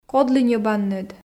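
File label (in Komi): "кодлы ньӧбанныд", Komi, female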